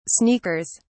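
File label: "sneakers", British English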